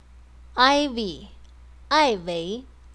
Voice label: Ivy in chinese